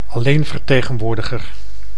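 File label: "alleenvertegenwoordiger", Dutch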